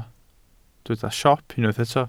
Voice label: "siop", Welsh